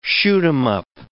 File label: "shoot-'em-up", English